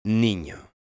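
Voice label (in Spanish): "nino", español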